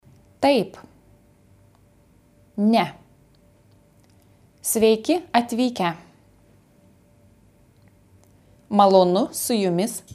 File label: Lithuanian